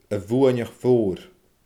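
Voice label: "a bhuainneach mhoor", Scottish Gaelic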